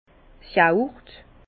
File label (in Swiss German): "yaurt", Bas Rhin; yaourt